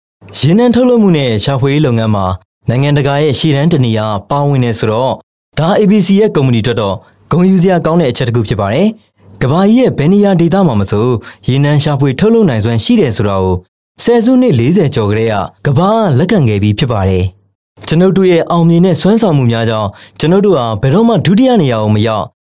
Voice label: m Burmese Marketing

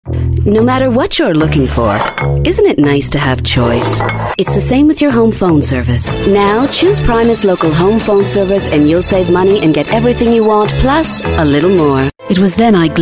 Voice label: US English Commercial

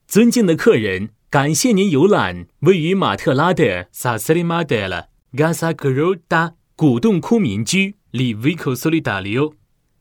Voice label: Mandarin China; ZiwoFangHu

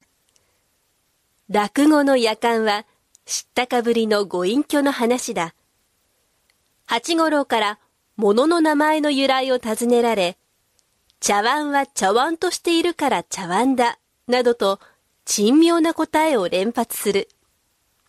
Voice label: Japanese Narration F; Female

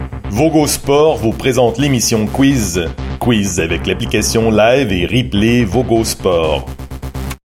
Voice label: French Sport; Vogo

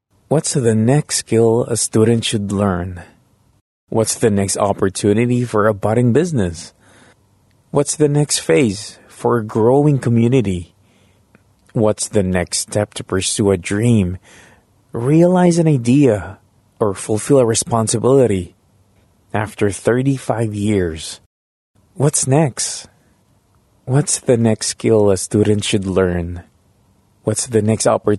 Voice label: Filipino English; Aboitiz AFI

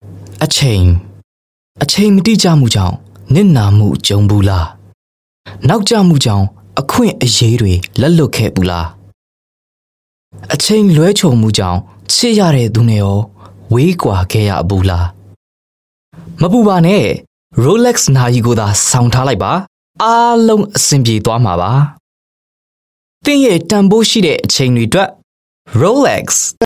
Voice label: m Burmese TVC

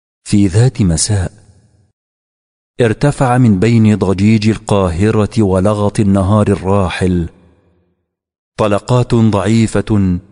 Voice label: Arabic M

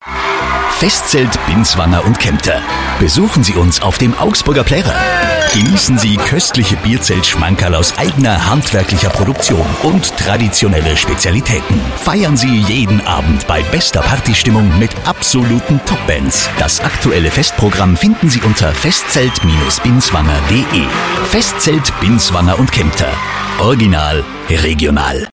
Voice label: German Male Radio Spot Bairisch; Binswanger Kempter

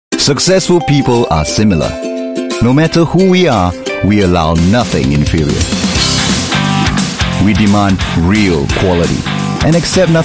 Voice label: Asian English coffee TVC; POKKA

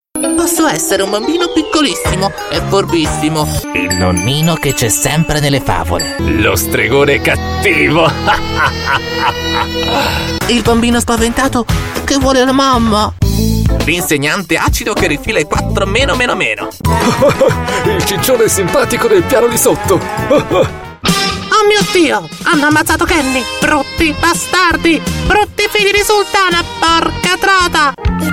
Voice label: Italian Animation

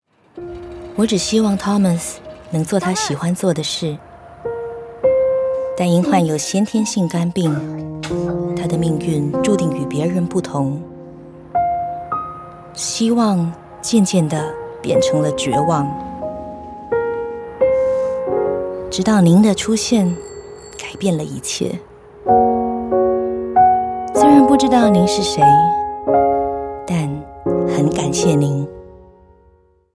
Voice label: Mandarin Campaign, Mandarin China Female; MOH Live On

